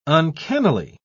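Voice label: "uncannily", British English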